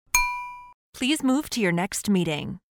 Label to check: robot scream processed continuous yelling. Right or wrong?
Wrong